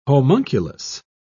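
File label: "homunculus", British English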